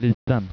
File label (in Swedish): "liten", Swedish